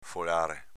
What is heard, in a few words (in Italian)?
"folare", dialetto veneto